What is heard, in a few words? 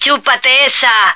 "eat that", female; Marisa the Magician